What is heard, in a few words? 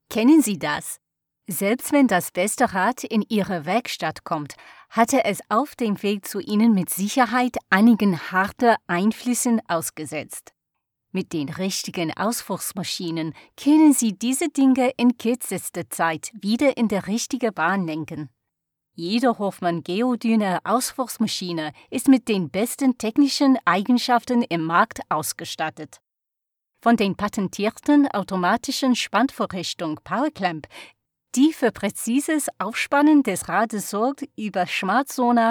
British Female Voice Over German French and Spanish